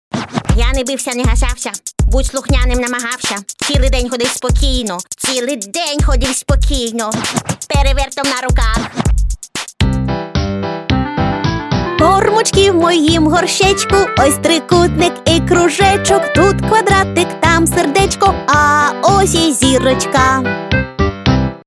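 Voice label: Ukrainian, Characters; GoLocalise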